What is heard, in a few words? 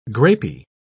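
"grapy", British English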